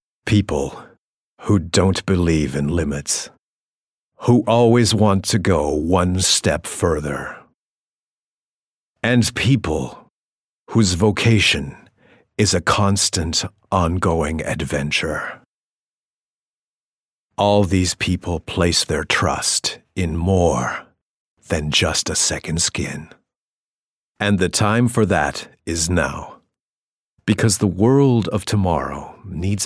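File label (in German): US English, Werbung, Lebendig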